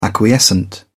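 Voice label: "acquiescent", British English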